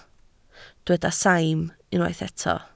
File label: "saim", Welsh